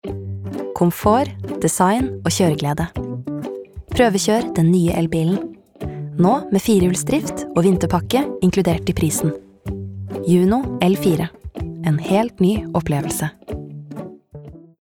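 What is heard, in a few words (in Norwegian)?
reklame, Norwegian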